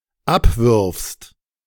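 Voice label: "abwürfst", German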